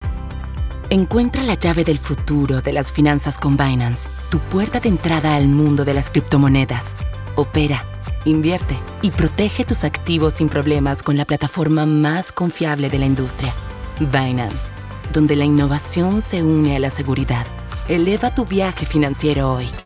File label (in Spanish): serio poderoso